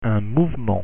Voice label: "mouvement", French pronunciation

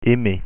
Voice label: "aimer", French; pronunciation

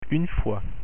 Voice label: "fois", French pronunciation

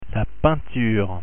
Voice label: "peinture", French pronunciation

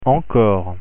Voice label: "encore", French pronunciation

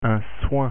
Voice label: "soin", French pronunciation

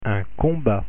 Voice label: "combat", French pronunciation

